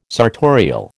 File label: "sartorial", American